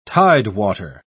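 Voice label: "tidewater", British English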